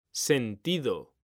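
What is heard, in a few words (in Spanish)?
"sentido", male; mekanta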